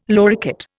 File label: "loricate", Indian